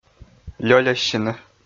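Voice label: "лёльӧссьыны", Komi, male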